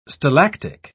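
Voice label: "stalactic", British English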